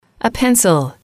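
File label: "pencil", English, female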